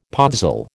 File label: "podzol", American